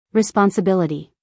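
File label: "responsibility", American English, female; everyone-can-use-english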